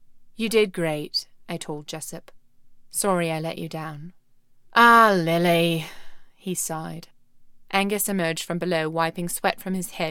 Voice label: Audiobook